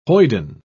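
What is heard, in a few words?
"hoyden", British English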